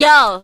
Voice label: "yo" kid; ooh ahh